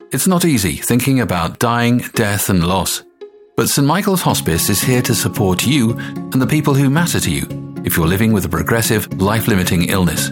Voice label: commercials